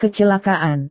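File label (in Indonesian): "kecelakaan", Indonesian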